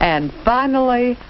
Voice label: "and finally", female; timeframes